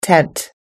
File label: "tent", us english